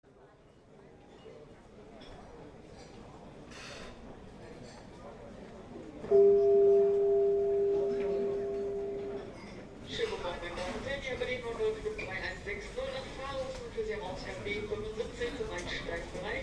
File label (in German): Flug nach Faro, Flughafen Ansagen